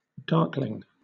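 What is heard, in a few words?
"darkling", British English; pronunciations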